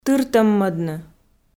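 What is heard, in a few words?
"тыртӧммӧдны", Komi, female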